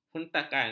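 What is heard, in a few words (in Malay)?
"hentakan", Malay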